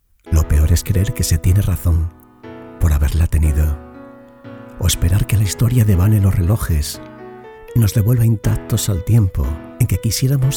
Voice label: spanish voiceover locutor españa

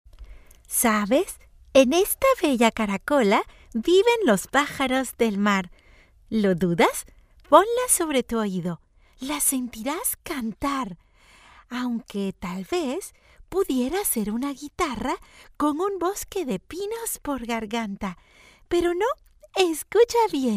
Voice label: locutora infantil latin american child voice

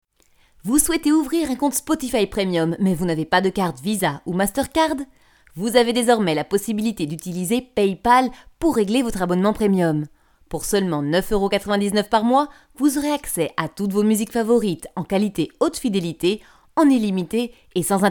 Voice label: locutora francesa

